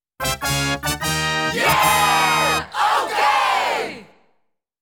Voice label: Yeah! Ok!, jingles

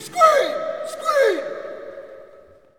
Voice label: Hockey Male "Screen"; Hockey Vocal Sounds

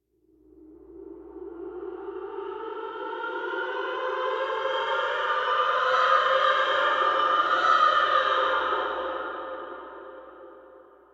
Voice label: scaring voice; Inquisitor 3

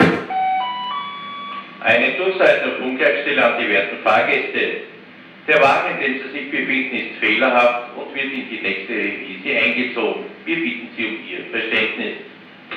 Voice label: speaker german train; best service Blue Box

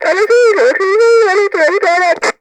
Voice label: Walkie Talkie Female Chatter; Communications; 1001 Sound Effects

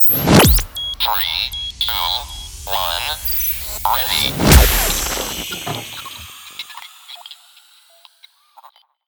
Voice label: Anesthesia Countdown; Plastic Surgery

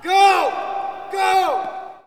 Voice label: Hockey Male Go; Hockey Vocal Sounds

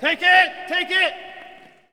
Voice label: Hockey Male "Take It"; Hockey Vocal Sounds

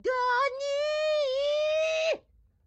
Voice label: grandma chopper annoyed; Blind Drive

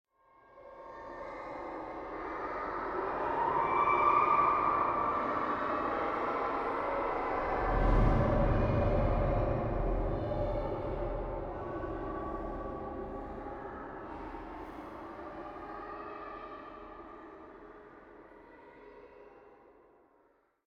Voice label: Children Talking In Heavy Reverb Eerie Drone; Hollywood Edge; sound designer's toolkit v2

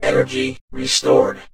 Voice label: Vocoder Energy Restored; Pickups

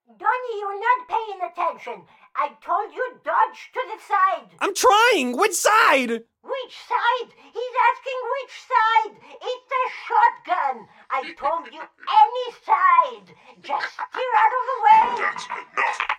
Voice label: tutorial; abe any side; Blind Drive

